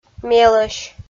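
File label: "мелӧсь", Komi, female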